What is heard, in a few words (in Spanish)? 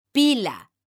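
"pila", Spanish, female; mekanta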